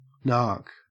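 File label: "narc", English, Australian accent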